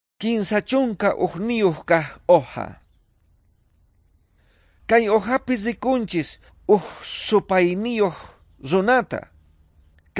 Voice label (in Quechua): quechua bolivian cochabamba; good news